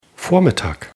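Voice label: "vormittag", German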